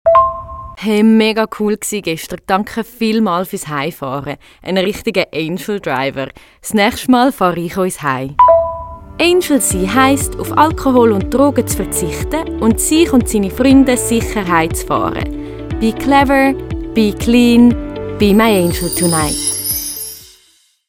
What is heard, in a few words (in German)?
Jingles nacher weiblich